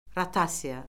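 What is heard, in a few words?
"rotasiya", Azerbaijani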